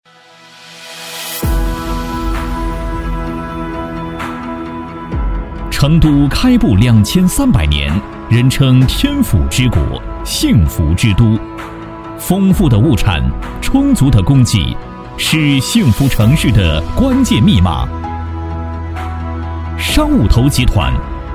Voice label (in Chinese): 男国 专题 企业 大气; 成都商务投集团